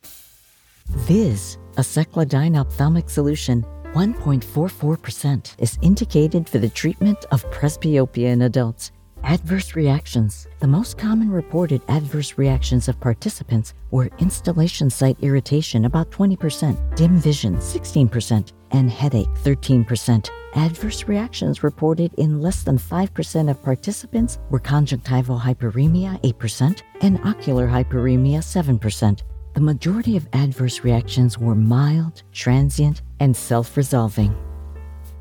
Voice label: with Music; Vizz for Presbyopia